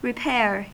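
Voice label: "repair", American English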